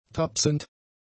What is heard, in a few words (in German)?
"tapsend", German; adjectives